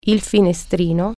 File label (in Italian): "window", Italian